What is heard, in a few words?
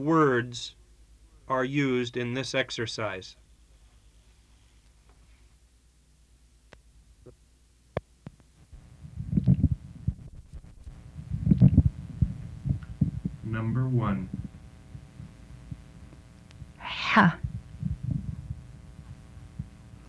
Haida